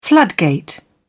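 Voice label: "floodgate", British English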